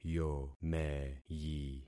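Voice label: "yomeyi", concatenated syllables; Affixing experiment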